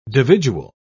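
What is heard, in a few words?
"dividual", British English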